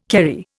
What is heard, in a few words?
"Kerry", Indian